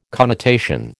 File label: "connotation", American